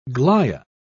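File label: "glia", British English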